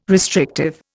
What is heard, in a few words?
"restrictive", Indian